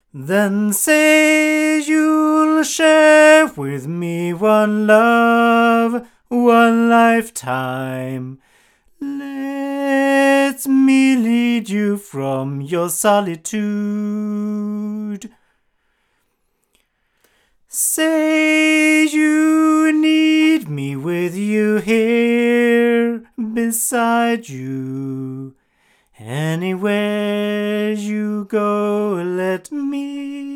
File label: omni male